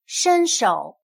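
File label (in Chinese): "伸手" Mandarin Chinese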